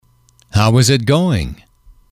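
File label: "how is it going", English, male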